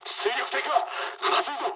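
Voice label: Japanese damaged engine mood high; War Thunder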